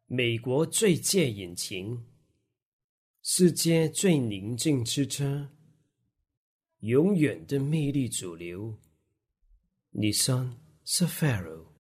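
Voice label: Chinese General Mandarin; GoLocalise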